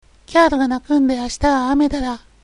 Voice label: "dara", dialect